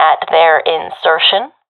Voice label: at enemy spawn, professional fem; vo; Counter Strike Model Pack; CS:GO